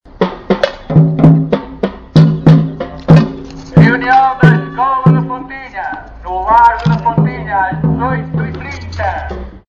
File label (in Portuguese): megafone; fontinha